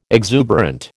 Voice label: "exuberant", American